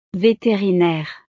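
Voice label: "veterinaire", French; profesiones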